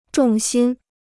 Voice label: "重心", Chinese

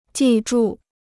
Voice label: "记住", Chinese

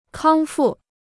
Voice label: "康复", Chinese

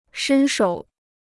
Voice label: "伸手", Chinese

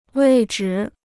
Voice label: "位置", Chinese

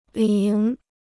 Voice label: "铃", Chinese

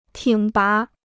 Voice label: "挺拔", Chinese

